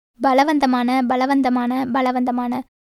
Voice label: Tamil